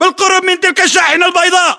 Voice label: landmark near vanwhite, localized english battlechatter